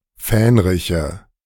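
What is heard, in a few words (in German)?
"Fähnriche", German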